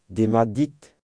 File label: hello, Breton; Demat dit